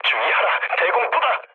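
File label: Korean damaged aa mood low, speech; War Thunder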